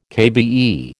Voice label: "KBE", American